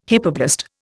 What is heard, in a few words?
"hypoblast", Indian